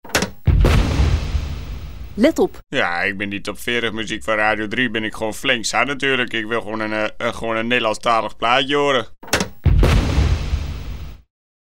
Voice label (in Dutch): JINGLE, Ik ben die top 40 muziek mooi zat